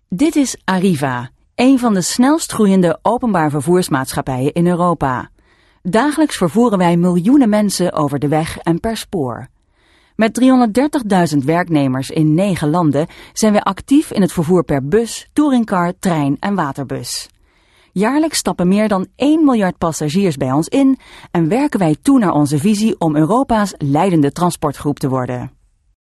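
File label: Dutch, female, narration; voice over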